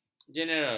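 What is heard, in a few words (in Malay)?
"jeneral", Malay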